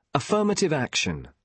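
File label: "affirmative", British English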